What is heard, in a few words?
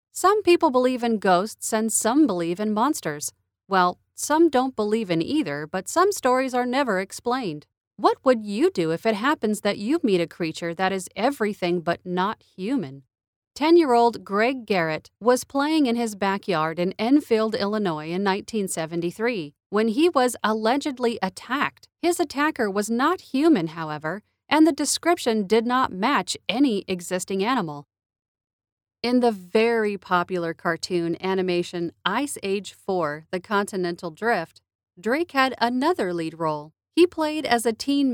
youtube narration